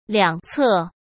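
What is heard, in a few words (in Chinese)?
"两侧", Mandarin Chinese; hsk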